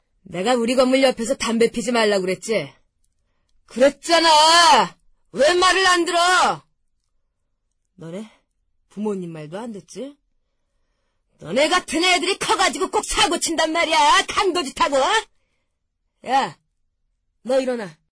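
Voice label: Korean, female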